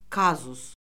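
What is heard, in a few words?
"kazus", Azerbaijani; Orfoqrafiya Lüğəti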